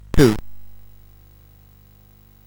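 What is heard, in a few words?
Bouncy Balls speech, MOS 8580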